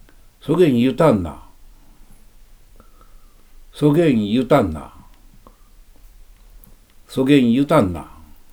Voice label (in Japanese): 出水方言; [ソゲン(RF) [ユタン(RF)]ナ(F) 「（あなたが）そう言ったんですか？」; wav形式のPCM音声です。録音は、T氏(1931年4月生まれ)にお願いしました。